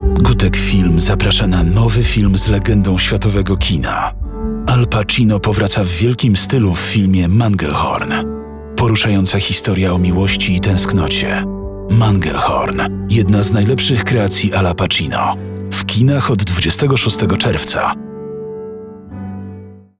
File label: radio; Manglehorn; spot radiowy